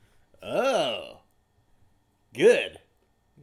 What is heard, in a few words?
"Oh Good" Male